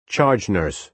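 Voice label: "charge nurse", American English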